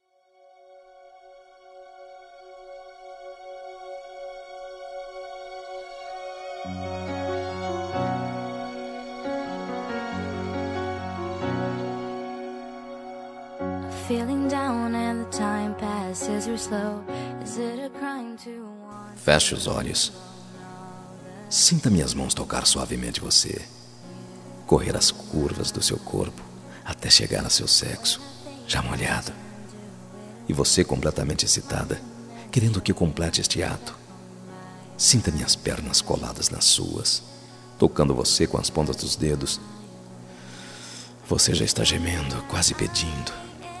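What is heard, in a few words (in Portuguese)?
ROMANTICA PICANTE male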